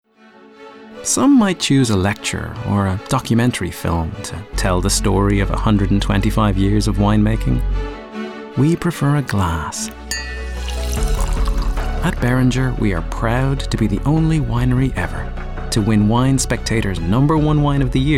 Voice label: Commercial VO